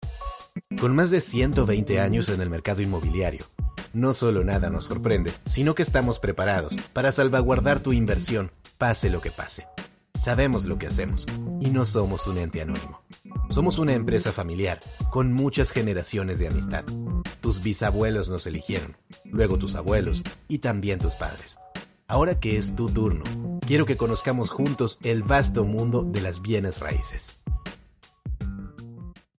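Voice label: Latin American Spanish, Corporate; GoLocalise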